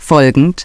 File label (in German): "folgend", Navigation; DISTEFORA Navigation; Mobile Navigator Notebook